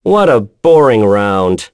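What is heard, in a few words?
Vox Victory, English; Esker; kingsraid; voices heroes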